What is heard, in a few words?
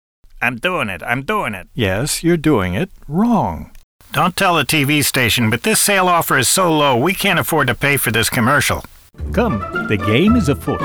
Comic Characters VO